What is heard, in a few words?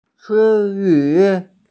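"words", Mandarin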